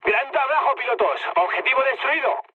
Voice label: bomb success, mood high, speech; War Thunder